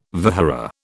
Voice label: "vihara", British

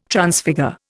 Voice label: "Transfigure", Indian

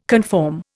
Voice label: "conform", Indian

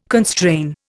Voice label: "constrain", Indian

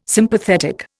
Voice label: "Sympathetic", Indian

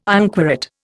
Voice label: "inquorate", Indian

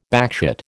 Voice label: "backchat", American

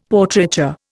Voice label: "Portraiture", Indian; Dictionary

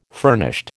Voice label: "furnished", American

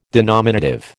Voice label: "denominative", American

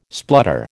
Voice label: "splutter", American

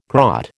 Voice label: "grot", American

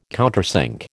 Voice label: "countersink", American

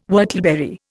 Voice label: "whortleberry", Indian

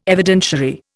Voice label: "Evidentiary", Indian

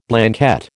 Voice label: "Blanquette", American

